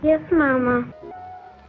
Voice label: yes mama, girl